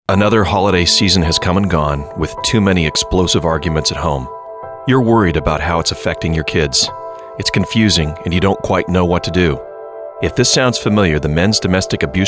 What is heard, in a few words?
Radio Ad